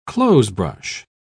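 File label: "clothesbrush", British English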